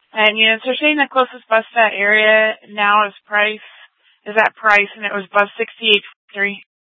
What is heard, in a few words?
Central Dispatch, Mesa PD; TOPAZ Regional Wireless Cooperative (TRWC) - Mesa Simulcast